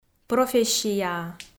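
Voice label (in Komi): "профессияа" Komi, female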